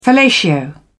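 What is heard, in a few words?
"fellatio", British English, dictionary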